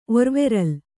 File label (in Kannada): "ಒರ್ವೆರಲ್", kannada